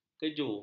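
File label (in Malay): "keju", Malay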